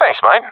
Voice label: thankful, professional epic; vo; Counter Strike Model Pack; CS:GO